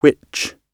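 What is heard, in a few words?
"which", British English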